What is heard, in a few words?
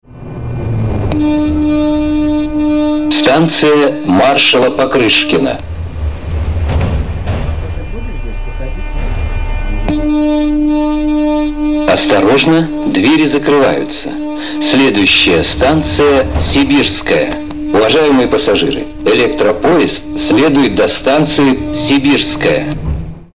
male